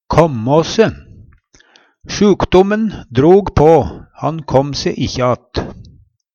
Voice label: kamma se, Numedalsmål; koma seg, bli betre; Uttrykk

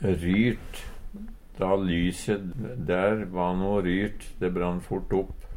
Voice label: ryrt, Numedalsmål; varar kort tid

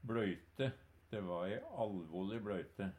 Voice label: "bLøyte", Numedalsmål; Substantiv hokjønn

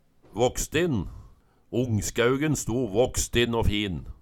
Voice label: vakstinn, Numedalsmål; ngskæugen sto våkstinn å fin

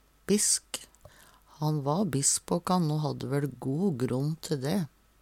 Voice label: bisk, Numedalsmål; Han va bisk på kan o hadde væL go gronn te dæ.; Adjektiv

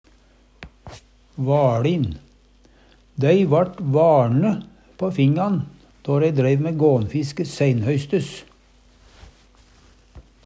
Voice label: vaLin, Numedalsmål; Dei vart vaLne på fingan då dei dreiv mæ gånfiske seinhøystes.; Adjektiv

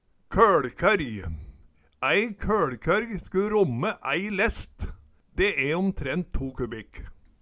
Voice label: koLkorj, Numedalsmål

